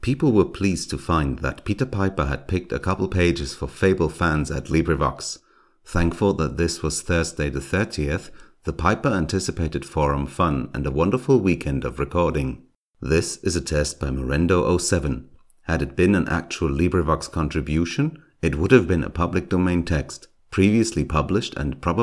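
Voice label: 1 Min without booth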